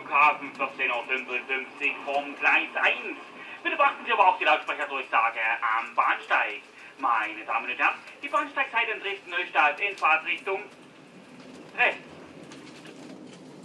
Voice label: bahnansager; animateur

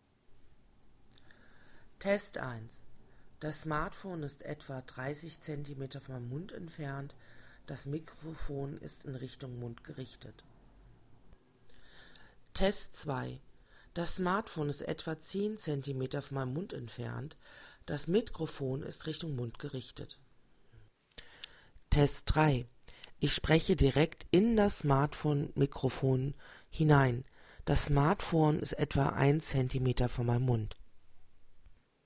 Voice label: mikrofontest distanz

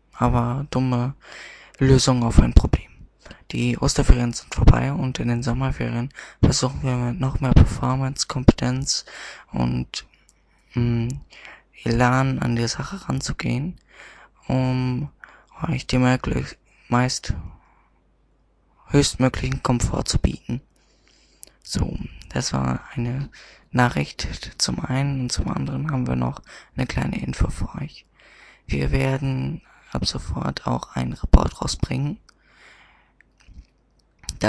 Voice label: nachrichten

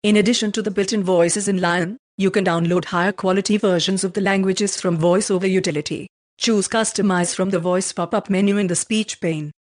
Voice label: stimmen in hoher qualitat

